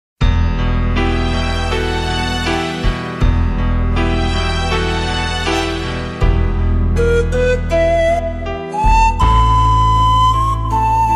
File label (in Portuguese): ANIV PARA NETO MASC, telemensagem